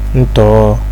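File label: "ntor", Nigerian Pidgin, male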